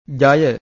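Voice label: "jaya", Pali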